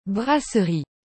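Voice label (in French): "brasserie", French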